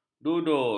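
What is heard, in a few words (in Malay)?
"duda", Malay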